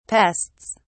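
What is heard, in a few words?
"pests", British English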